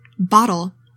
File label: "bottle", English; 3000 words